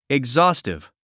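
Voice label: "exhaustive", English word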